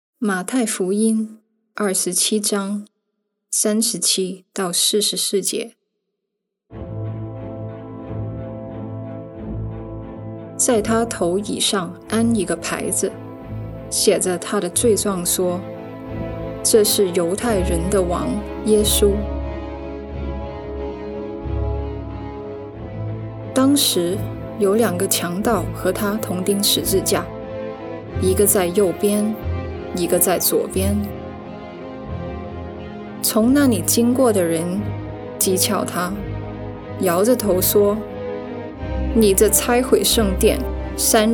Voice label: Voice Over Chinese; Journey to the Cross; Station 6